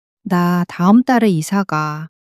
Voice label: sadness, monologue